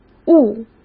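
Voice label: "误", Mandarin Chinese; hsk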